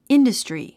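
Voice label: "industry", English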